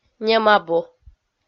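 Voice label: "нем абу", Komi-Zyrian, female; kpv audio dict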